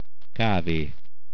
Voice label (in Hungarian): coffee, hungarian